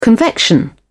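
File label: "convection", British English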